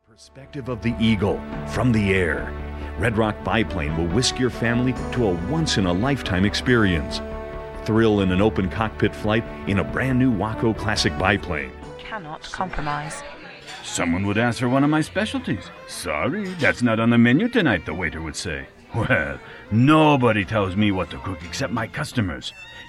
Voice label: commercials